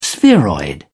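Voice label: "spheroid", British English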